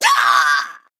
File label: Female Harpy Death